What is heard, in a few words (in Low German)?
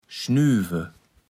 "schnüwe", Platt